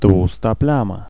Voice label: Oil Slick, vocals pl; fan made

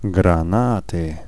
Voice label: Grenades, vocals pl; fan made

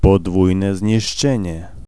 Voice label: Double Damage, vocals pl; fan made

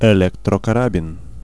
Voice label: Electro Fingers, vocals pl; fan made

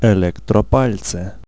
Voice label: Electro, vocals pl; fan made

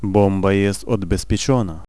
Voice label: Bomb Armed, vocals pl; fan made

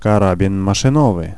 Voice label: Mac Gun, vocals pl; fan made

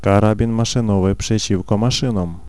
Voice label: Veh Macgun, vocals pl; fan made

